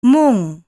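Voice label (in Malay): "mung", Malay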